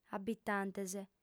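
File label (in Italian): "abitantes", sarda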